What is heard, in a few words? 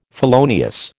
"felonious", American